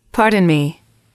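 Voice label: "pardon me", English, female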